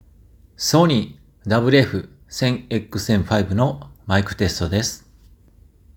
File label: sony wf 1000xm5 mic sound